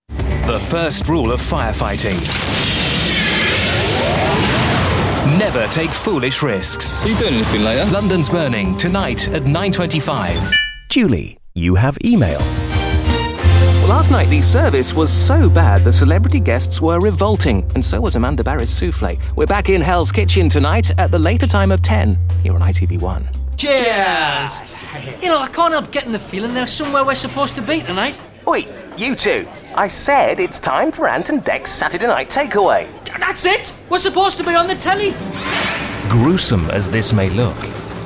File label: commercial, Voices, Voiceover